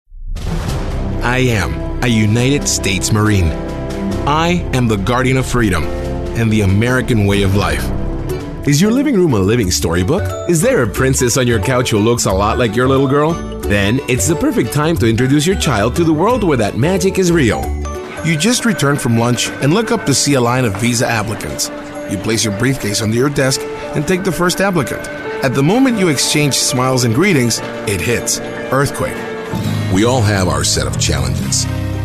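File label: Spanish, English, commercial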